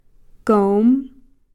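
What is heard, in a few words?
"koowm", Koasati word for "we"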